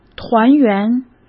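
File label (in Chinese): "团员", Mandarin Chinese; hsk; chinese flashcards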